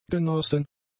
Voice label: "genossen", German; adjectives